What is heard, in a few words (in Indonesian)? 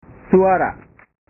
"suara", bahasa